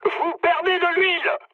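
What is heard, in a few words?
French damaged oil mood high; War Thunder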